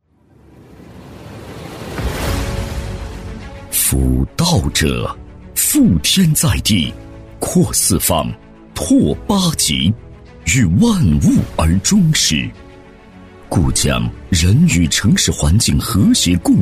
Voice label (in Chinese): 男 宣传片 大气恢宏; 高端厚重; 大气浑厚